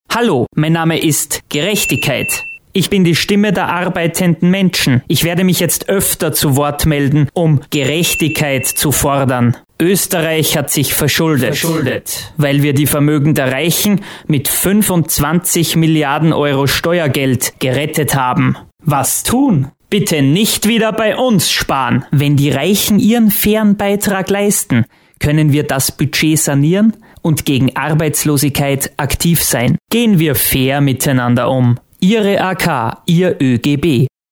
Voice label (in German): Radiospot